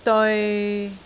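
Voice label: "deoi6", Cantonese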